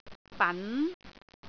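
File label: "ban2", Cantonese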